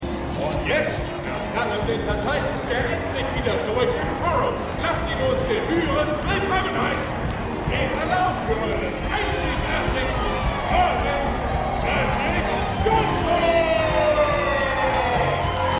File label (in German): Stadionsprecher; Winning Time